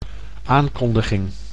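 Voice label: "aankondiging", Dutch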